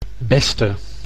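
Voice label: "beste", Dutch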